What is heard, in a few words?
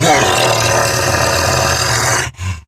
Attack Grineer Wolf, en; Battle Barks